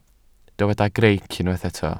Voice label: "greic", Welsh

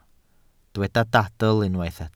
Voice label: "dadl" Welsh

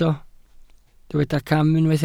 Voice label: "cam", Welsh

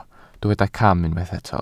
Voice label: "cam", Welsh

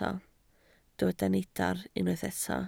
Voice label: "neidr", Welsh

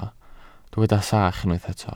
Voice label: "sach", Welsh

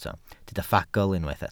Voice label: "ffagl" Welsh

